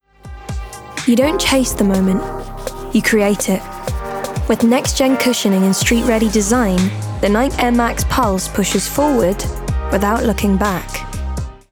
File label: RP ('Received Pronunciation'), Commercial, Cool, Smooth, Confident; Nike